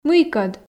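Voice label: "мыйкӧд", Komi, female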